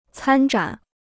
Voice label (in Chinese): "参展", Chinese